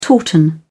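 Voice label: "tauten", British English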